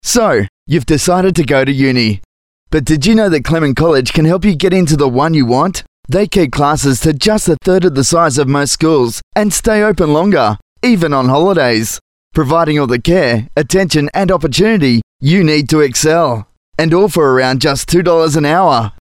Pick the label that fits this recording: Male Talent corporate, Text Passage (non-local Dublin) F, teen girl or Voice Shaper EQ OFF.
Male Talent corporate